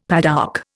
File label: "padouk", Indian; Dictionary